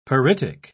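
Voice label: "pyritic", British English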